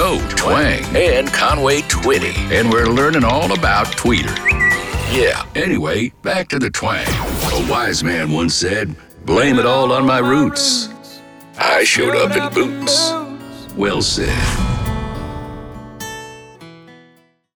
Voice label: Classic Country Local - Imaging

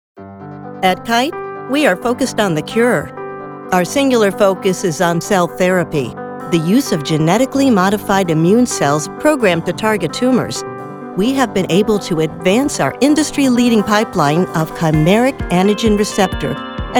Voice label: Medical Narration

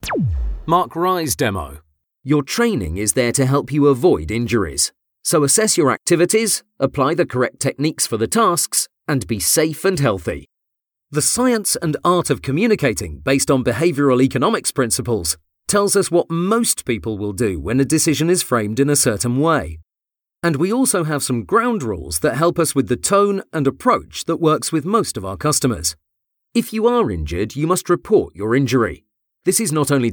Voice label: e-learning voice reel

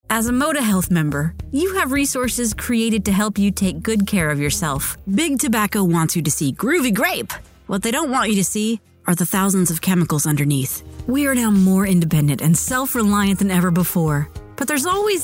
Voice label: Spokesperson VO

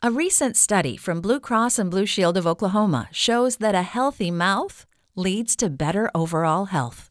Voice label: dental sample; BCBS

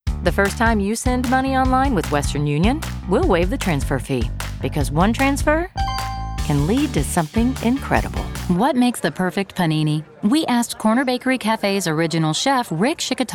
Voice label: VO Commercial

